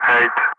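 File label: "8", English, Wingman; Ka-50; Digits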